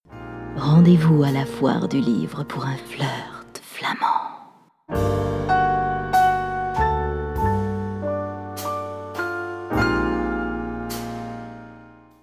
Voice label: Flamand; Flirt; Foire du livre Bruxelles 2019

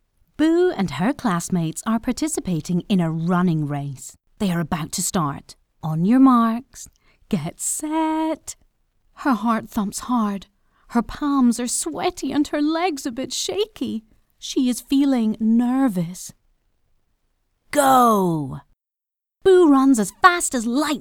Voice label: Emotions childrens education

